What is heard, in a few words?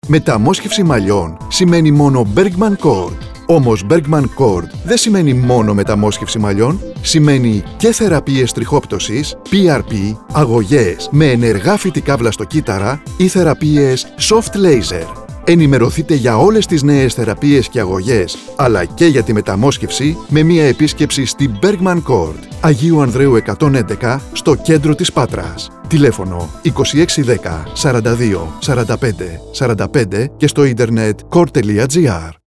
radio spots; trixoptwsi metamosxefsi malliwn therapies